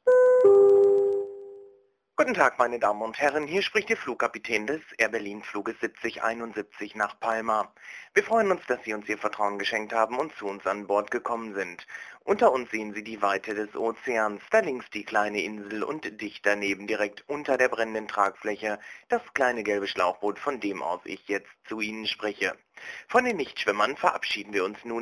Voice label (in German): ansage flugzeug